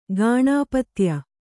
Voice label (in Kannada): "ಗಾಣಪತ್ಯ", kannada